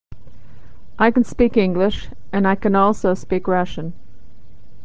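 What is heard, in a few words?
can, American English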